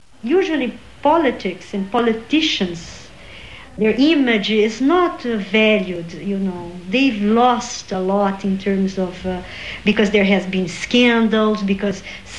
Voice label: ESL Brazil, Non-Hispanic Non-Native Speakers, dialect